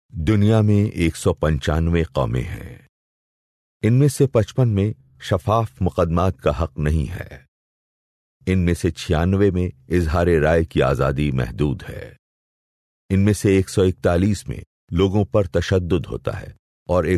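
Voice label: Urdu, male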